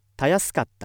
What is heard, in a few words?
male